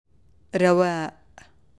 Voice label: Arabic greetings